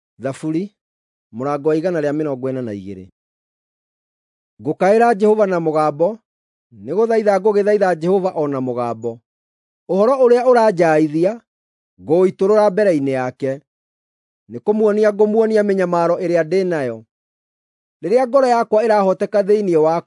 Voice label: psalms, gikuyu drama